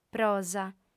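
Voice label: "prosa", female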